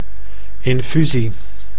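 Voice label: "infusie", Dutch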